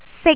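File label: "sik1", Cantonese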